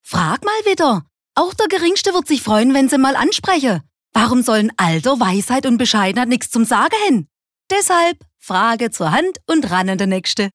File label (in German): Dialekt Schwaebisch